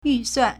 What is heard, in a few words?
"yu4suan4", Chinese